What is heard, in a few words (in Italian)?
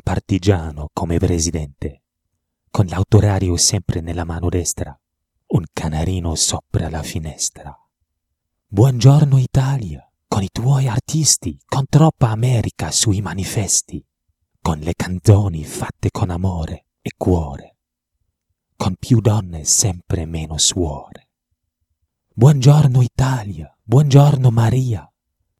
italiano